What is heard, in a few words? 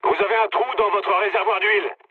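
damaged oil, mood low, French; War Thunder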